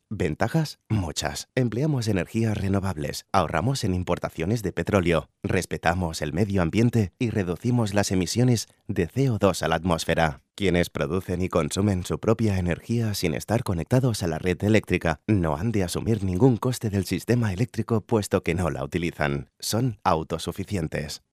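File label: spanish male commercial; voice demos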